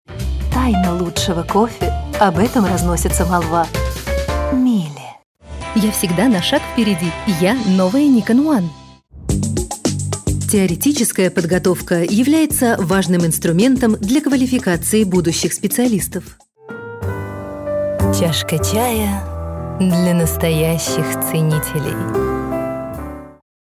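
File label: Russian commercial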